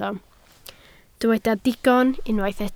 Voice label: "digon" Welsh

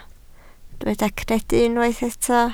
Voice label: "credu", Welsh

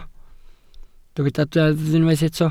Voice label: "deddf" Welsh

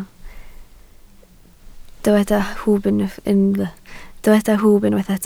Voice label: "hwb", Welsh

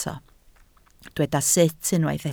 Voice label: "sut", Welsh

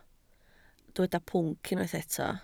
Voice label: "pwnc", Welsh

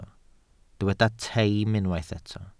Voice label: "teim" Welsh

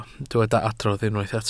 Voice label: "adrodd", Welsh

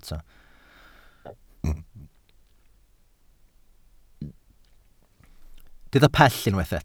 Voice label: "pell", Welsh

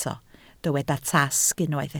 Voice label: "tasg", Welsh

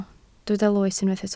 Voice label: "loes", Welsh

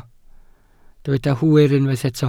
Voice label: "hwyr", Welsh

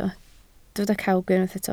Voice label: "cawg", Welsh

